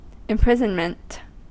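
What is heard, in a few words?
"imprisonment", US English; pronunciations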